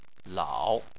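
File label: "lao", Chinese, third tone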